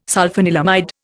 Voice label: "sulphanilamide", Indian